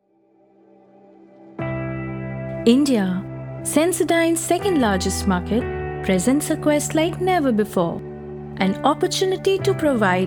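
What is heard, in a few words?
Explainer English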